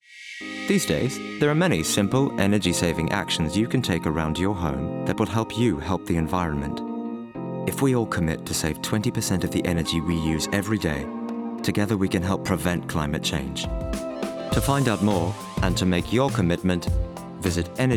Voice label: commercial, Australian, English Neutral, Informative, Versatile